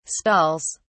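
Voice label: "stalls", British English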